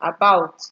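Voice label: "about", Nigerian Pidgin, female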